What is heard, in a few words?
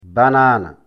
"banaana", lecture fl